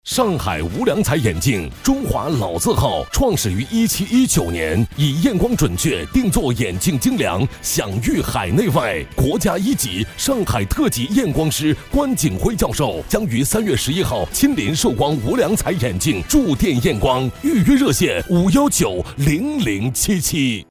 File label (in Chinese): 男,广告配音